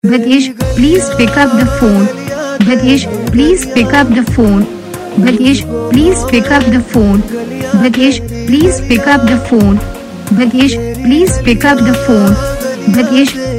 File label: pick up phone saying, Ringtones